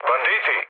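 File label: Serbian enemy detected mood med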